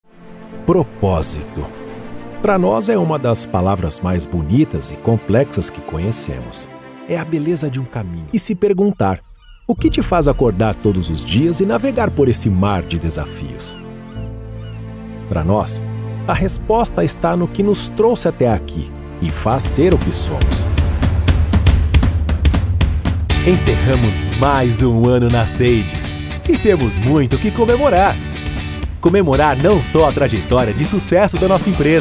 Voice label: Institucional, locutor

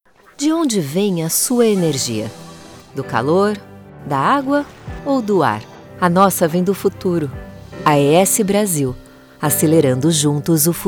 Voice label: institucional

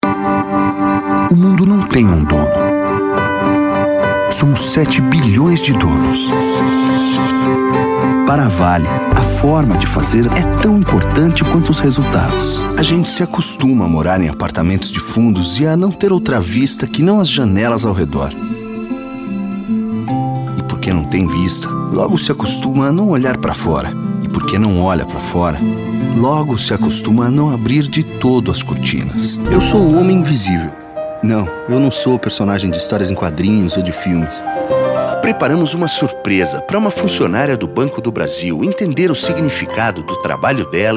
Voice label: Locs EMOCIONAIS E INSTITUCIONAIS

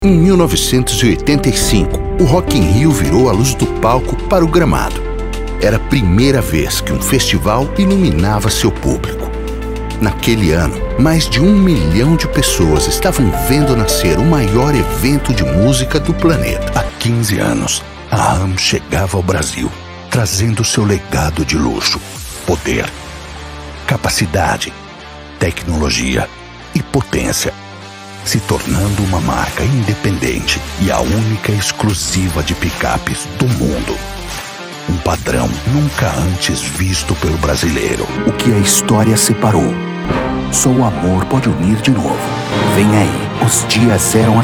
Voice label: NARRADOR

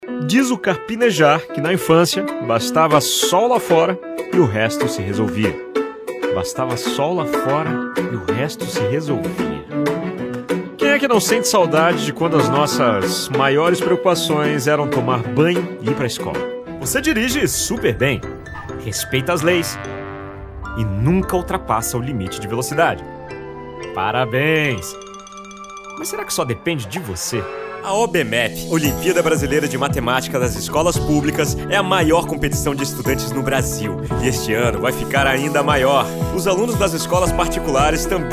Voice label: natural

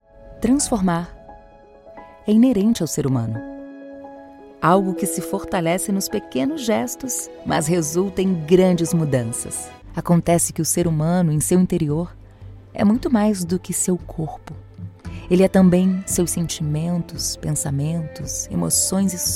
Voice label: Loc Inspiradora Emocional Locutora

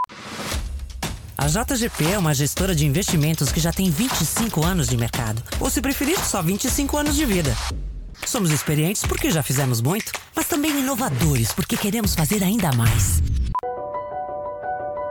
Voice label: repertório manifestos emocional motivacional

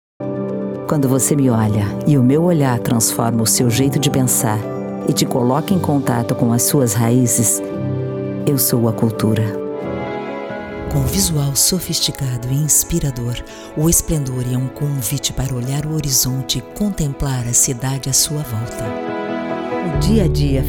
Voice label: Repertório Voz Clássica

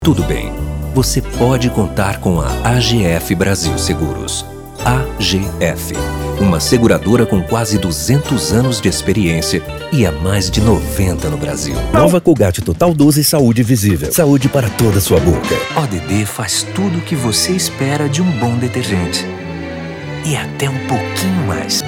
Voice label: Baiano CLASSICA

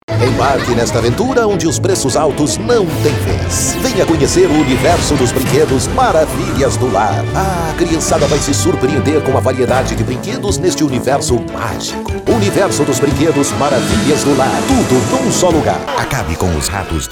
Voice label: Repertório HUMOR CARICATO

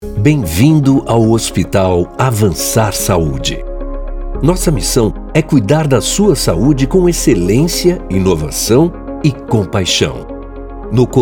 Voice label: Baiano INSTITUCIONAL

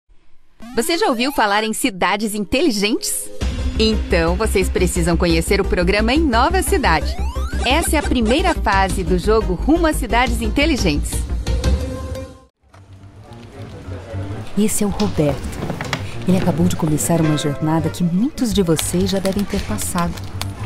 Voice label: Voz Jovem

